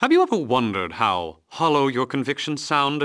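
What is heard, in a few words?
female hi; nwnds audio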